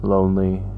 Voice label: "lonely" deep; Ze Franks Pain Pack